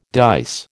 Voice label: "dice", American